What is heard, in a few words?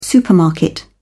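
"supermarket", English, British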